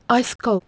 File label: "aisko", Proto-Germanic